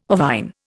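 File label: "ovine", Indian English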